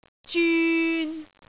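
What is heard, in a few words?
"zyun3", Cantonese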